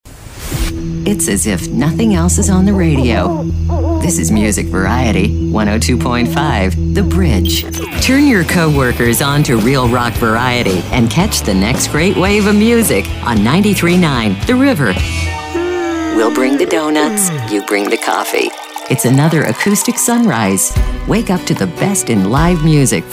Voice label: AAA Imaging; radio